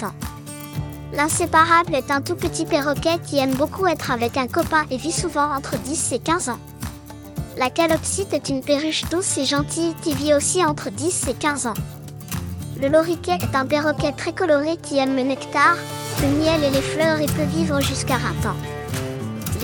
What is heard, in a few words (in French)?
oiseau exotique audio enfant, explication; ranch du moulin st martin